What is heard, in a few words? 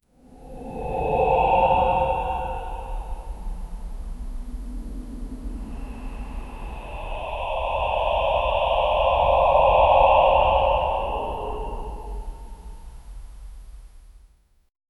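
a few ominous ghostly whispers; abandoned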